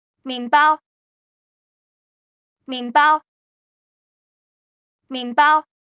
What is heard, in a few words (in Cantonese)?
面包, Cantonese; Traditional Chinese